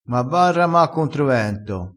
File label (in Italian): "rama", vocabolario dialettale